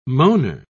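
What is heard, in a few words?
"moaner", British English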